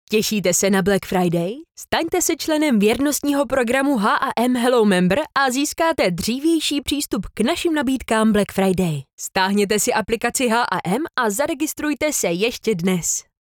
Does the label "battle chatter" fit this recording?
No